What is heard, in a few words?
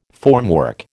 "formwork", American